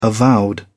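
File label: "avowed", British English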